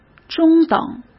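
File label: "中等", Mandarin Chinese; chinese flashcards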